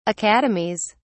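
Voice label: "academies", English, British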